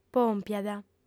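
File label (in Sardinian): "pòmpiat", female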